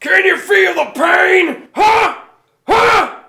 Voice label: Win, Tough Guy Voice; blackjack